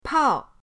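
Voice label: "pao", Mandarin pinyin, fourth tone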